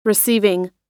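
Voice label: "receiving", American English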